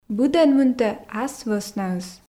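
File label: "быдӧн мынтӧ ас вӧснаыс", Komi, female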